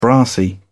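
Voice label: "brassy", British English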